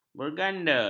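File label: "berganda", Malay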